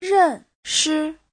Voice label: "ren4shi5", Chinese